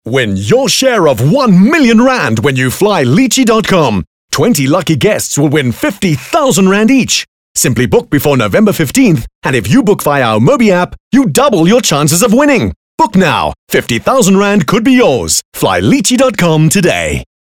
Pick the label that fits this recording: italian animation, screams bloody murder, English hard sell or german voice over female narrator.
English hard sell